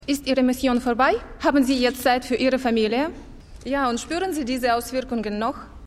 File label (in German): Russische Reporterin; Stimmproben; Constellation